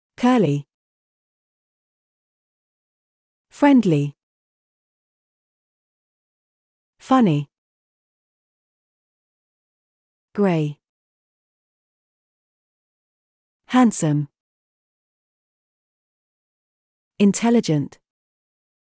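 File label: British; describe people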